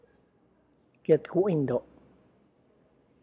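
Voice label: Tlacochahuaya Zapotec; tamal made with mole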